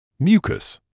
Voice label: "mucus", English